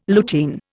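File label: "lutein", Indian English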